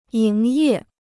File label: "营业", Chinese